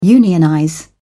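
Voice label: "unionize", British English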